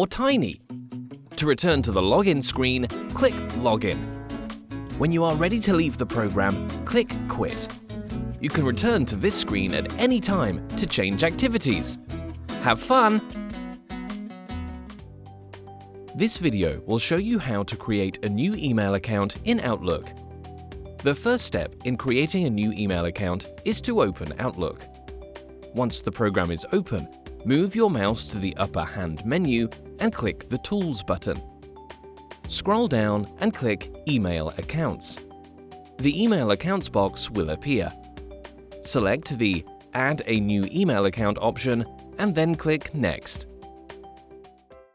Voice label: e-learning, voiceover

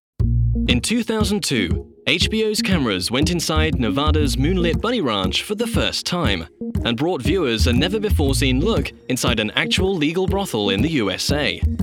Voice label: documentary, voiceover

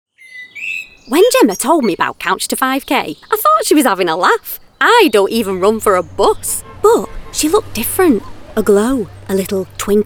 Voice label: commercial reel, voiceover

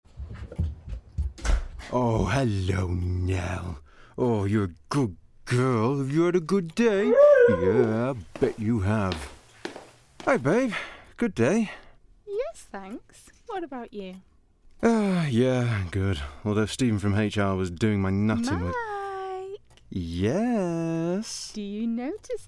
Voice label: voiceover radio play showreel

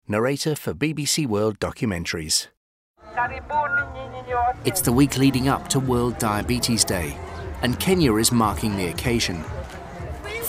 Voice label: narration reel, voiceover; Narration Showreel

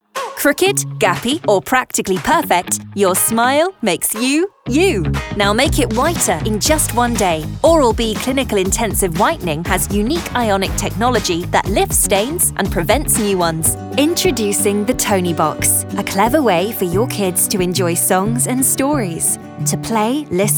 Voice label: commercial, voiceover

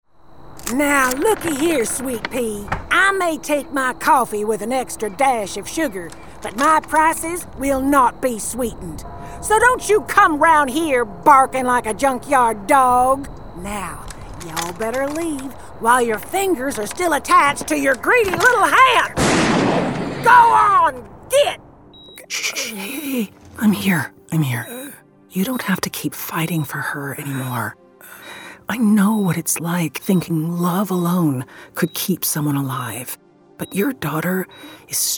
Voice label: gaming, voiceover

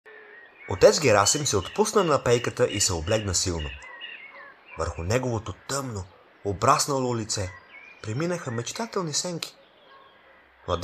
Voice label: bulgarian narrative, voiceover

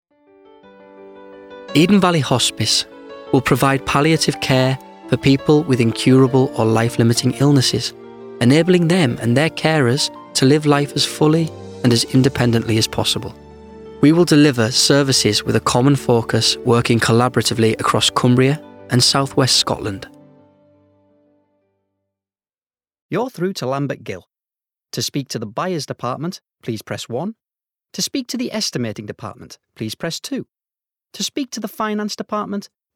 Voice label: corporate, voiceover

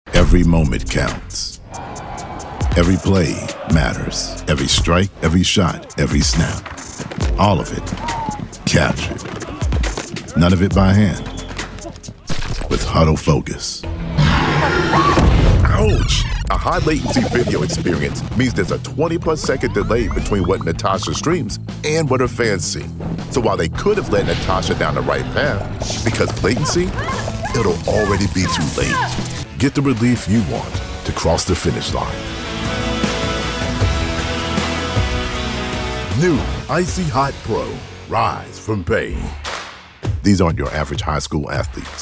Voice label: commercial sizzle reel, voiceover

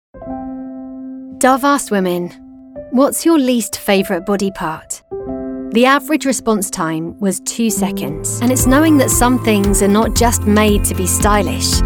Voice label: commercial mix, voiceover

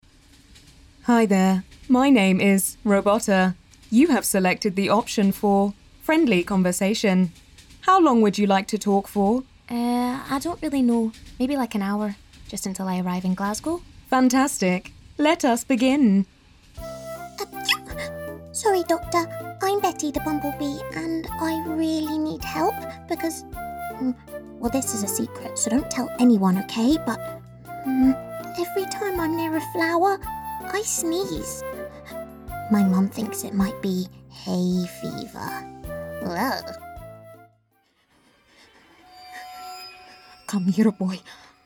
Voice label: voiceover commercial showreel

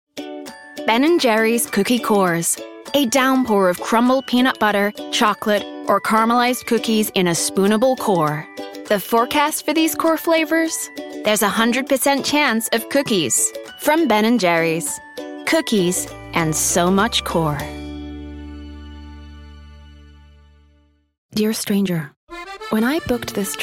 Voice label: commercial usa commercial, voiceover

